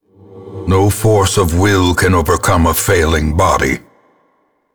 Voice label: narration starve; darkestdungeon